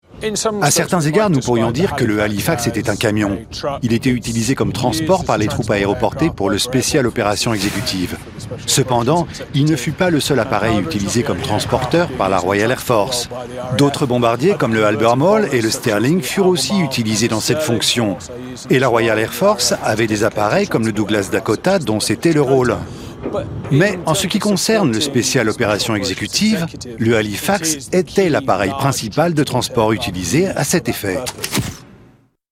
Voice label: Voice over